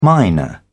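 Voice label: "minor", British English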